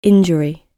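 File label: "injury" British English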